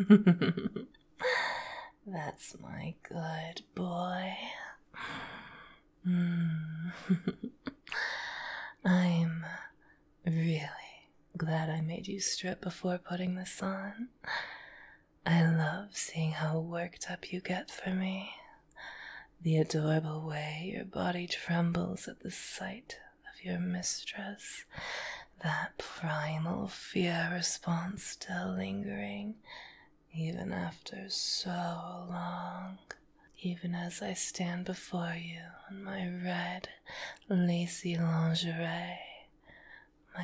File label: Pregnant Hellhound Wife, Gentle FDom, SFX